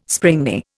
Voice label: "springy", Indian